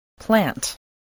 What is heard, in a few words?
"plant", English